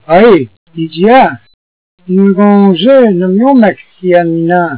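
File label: kwai mijia nwigowzi, ABENAKI CONVERSATION; Kwai! Nijia! N’wigôwzi namiômek kia mina!; Greetings! My brother! I am happy to see you again.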